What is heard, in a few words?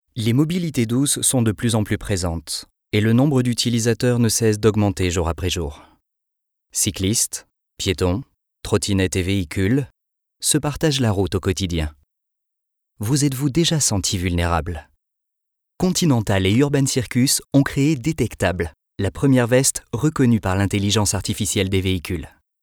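French male corporate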